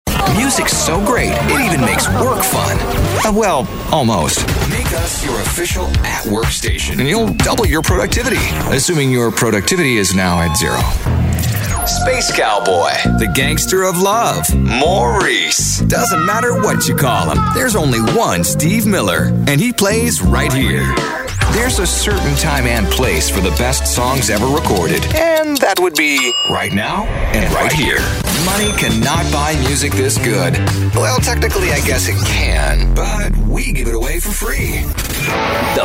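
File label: Radio Imaging, American Male Voice; Classic Hits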